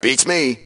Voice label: Dont Know, male; T2 Male 04